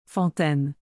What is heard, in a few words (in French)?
"fontaine", Canadian French; Québec; (fountain)